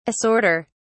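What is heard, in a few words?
"assorter", British English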